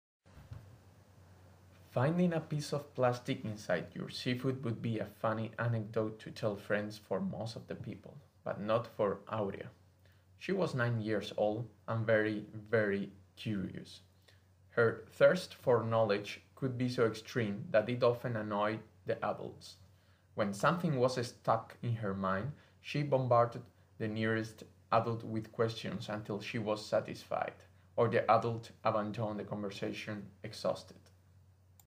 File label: English; wissenschaftliche kurzgeschichten